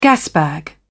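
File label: "gasbag", British English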